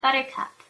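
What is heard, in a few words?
"buttercup", English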